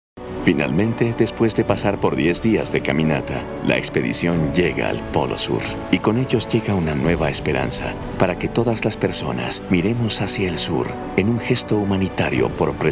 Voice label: Narration Spanish